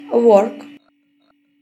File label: dialogue, Ukrainian